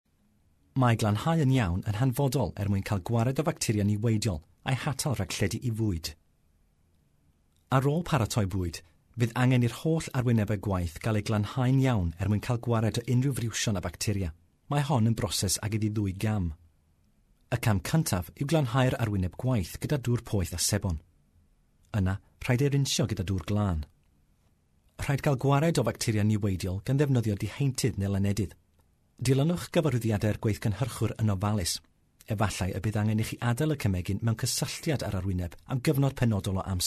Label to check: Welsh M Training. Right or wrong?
Right